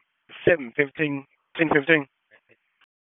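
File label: Sheriff's Office Dispatch; WSO DISP 1; wake p25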